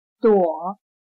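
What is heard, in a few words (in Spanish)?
"朵", chino mandarin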